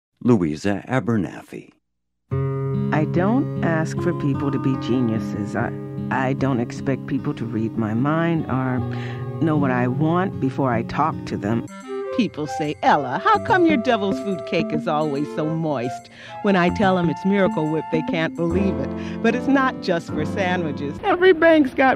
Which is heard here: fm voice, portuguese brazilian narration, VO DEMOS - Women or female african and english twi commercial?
VO DEMOS - Women